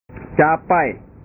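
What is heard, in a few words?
"capai", Indonesian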